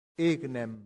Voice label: "ignem", latin; santillana